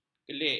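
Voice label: "kelik", Malay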